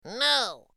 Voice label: "No!" Cartoon